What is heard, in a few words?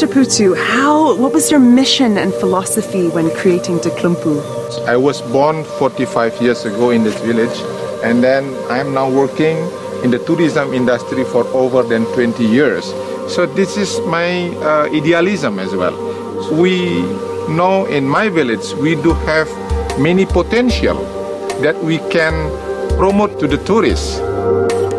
Asian English Bali Experience